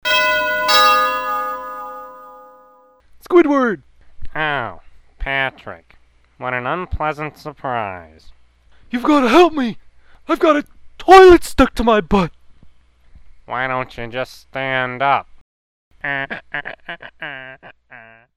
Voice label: toilet, voice; Patrick somehow gets to Squidward's door without standing up.; Spongebob